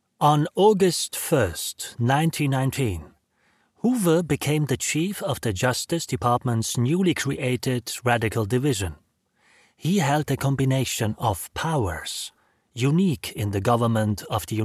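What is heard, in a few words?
German English, male, Narration, voice over; accent of germany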